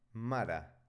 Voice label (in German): "mara", spanische maedchennamen